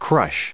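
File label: "crush", US English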